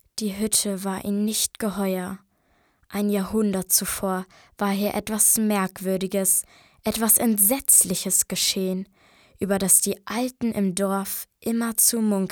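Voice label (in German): Mädchen; Die Hütte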